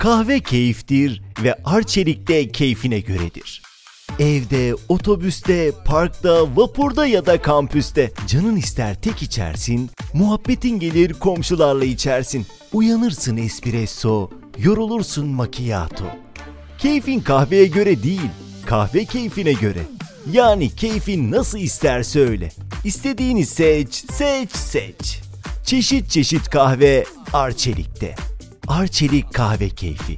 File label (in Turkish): seslendirme